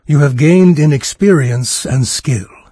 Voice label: levelup, NARRATOR; Fate The Cursed King; en-US